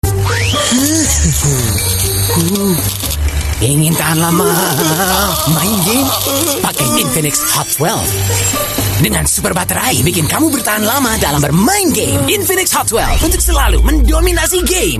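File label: Bahasa Indonesia Character Voice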